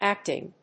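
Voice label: "acting", English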